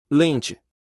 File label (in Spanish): Lente en portugues